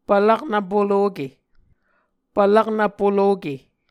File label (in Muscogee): "pvlaknv poloke", Muscogee